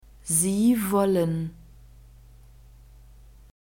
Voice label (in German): "sie wollen", German; verbs present tense irregular